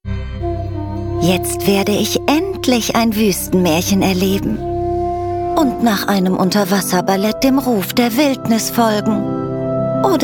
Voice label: Werbung Fliegen

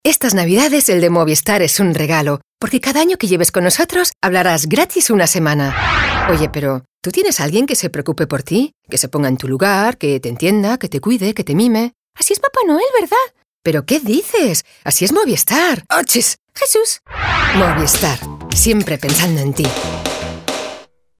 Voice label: werbung

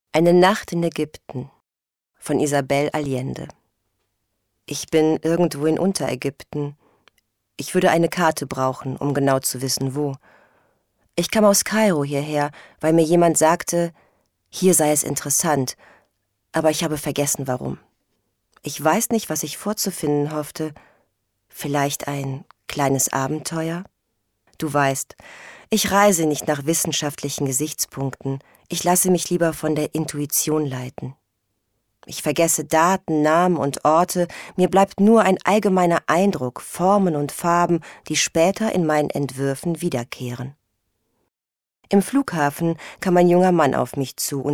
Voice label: Erzählung

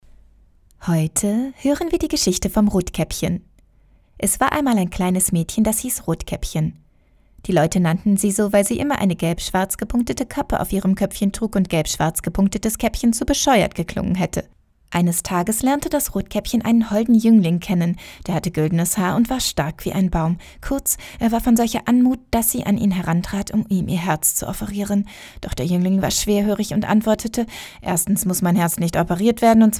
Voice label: Hoerbuch Deutsch

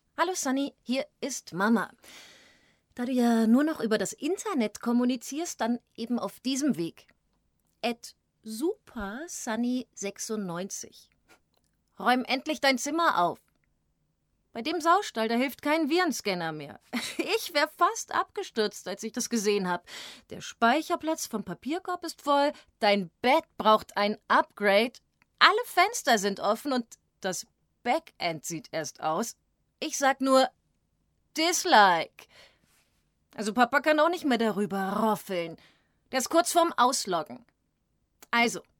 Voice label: Werbung Mutter

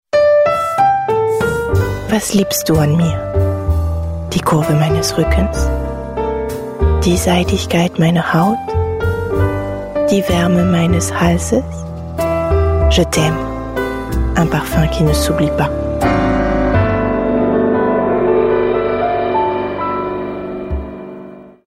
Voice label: Werbung deutsch

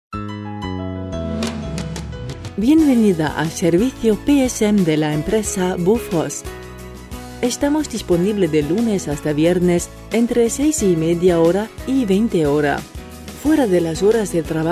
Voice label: Anrufbeantworter

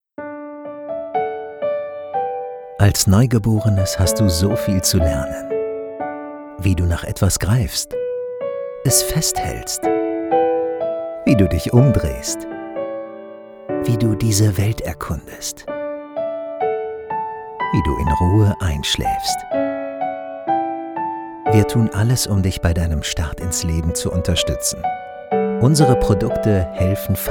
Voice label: Werbung Off

